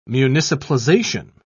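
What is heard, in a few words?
"municipalization", British English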